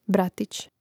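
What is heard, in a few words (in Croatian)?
"bratić", Hrvatski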